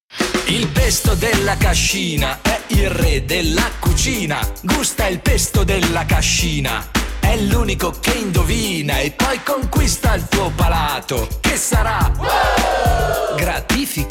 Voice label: radio; la cascina